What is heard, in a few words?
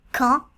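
"basse normandie", French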